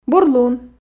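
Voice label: "бур лун", Komi, female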